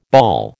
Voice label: "bawl", American